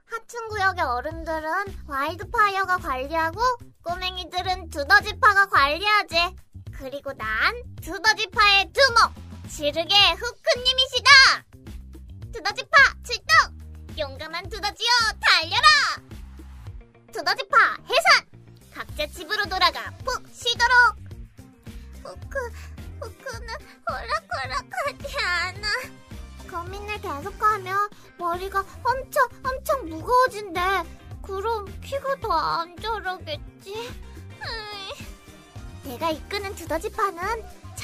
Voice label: 韩语女声 仿女童

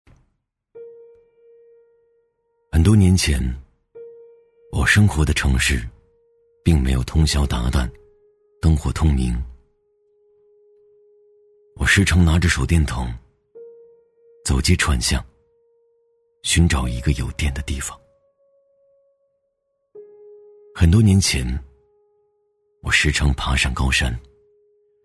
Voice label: 男 走心低沉 磁性低沉; 男B4-高端磁性; 质感磁性; 【追随光的方向】

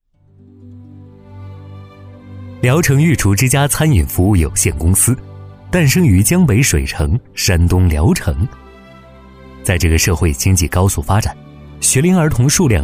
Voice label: 男 专题配音 大气厚重; 裕厨之家; 年轻质感; 年轻舒缓

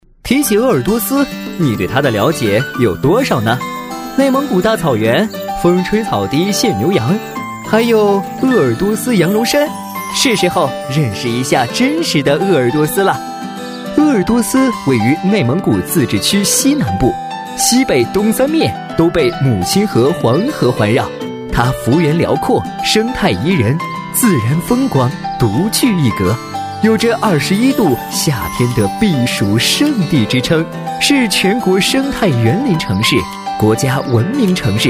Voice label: 男 解说 年轻轻快; 鄂尔多斯; 男WX-年轻多变; 年轻时尚

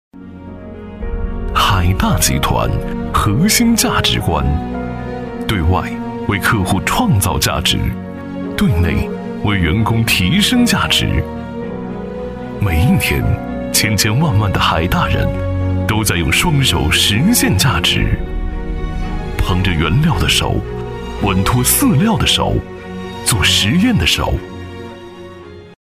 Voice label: 男, 集团宣传片, 大气舒缓; 时尚大气 年轻舒缓

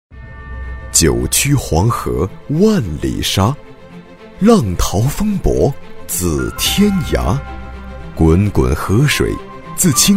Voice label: 男 宣传片 大气; 黄河记忆; 质感磁性; 大气浑厚

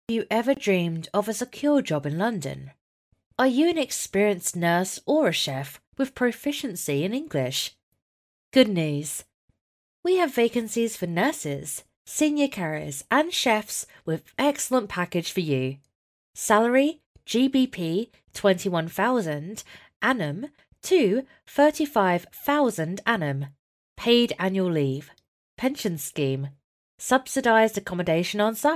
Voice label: 英式女声

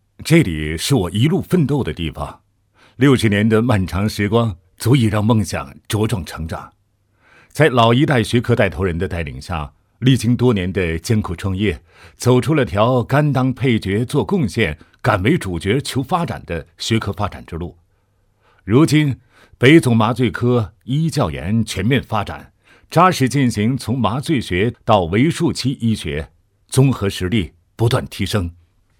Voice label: 男 同期声人物 主任; 张主任; 男37-大气稳重

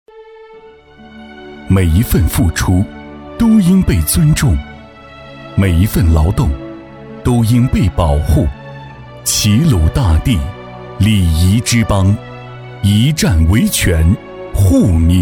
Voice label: 男 专题配音 大气抒情; 阳谷县; 成熟厚重

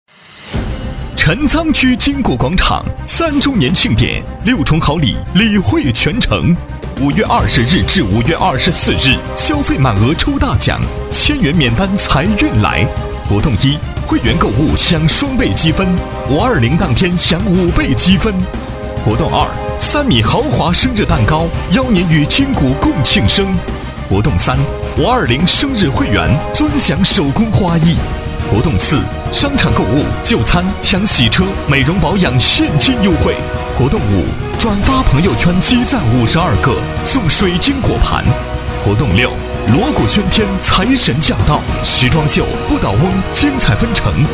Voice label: 男 激情广告 激情热烈; 厚重磁性 激情力度; 金谷广场3周年庆

